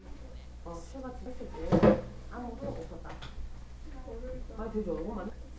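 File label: "also", spoken word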